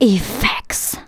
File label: "effects", spoken; Techno Trance Essentials